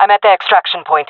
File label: guarding escape zone, jungle fem; player vo jungle fem